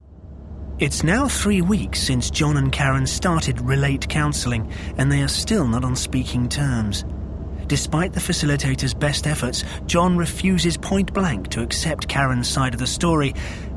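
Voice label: narration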